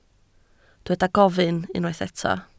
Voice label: "gofyn", Welsh